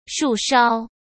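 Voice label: "树梢", Mandarin Chinese; chinese-flashcards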